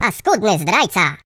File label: Traitor, voices, pl; Default pl